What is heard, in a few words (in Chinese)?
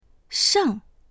"sheng4", voices; 剩; 残る、余る